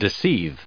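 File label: "deceive", US English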